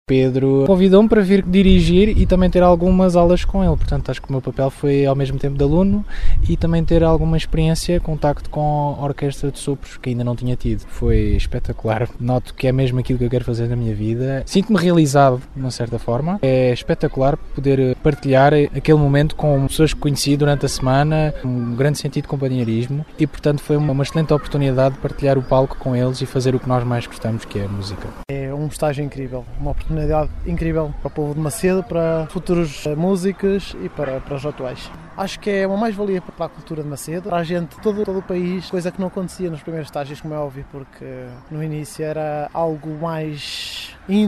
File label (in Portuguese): vox pop banda